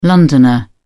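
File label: "londoner", British English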